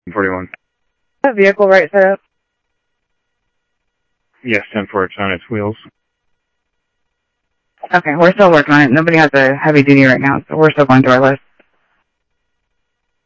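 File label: Sheriff East County, scanner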